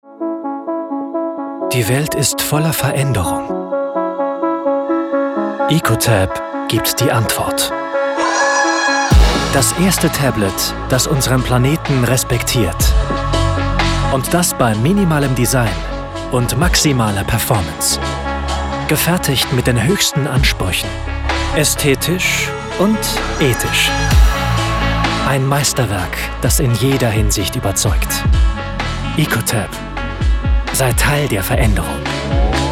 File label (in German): Werbung; EcoTab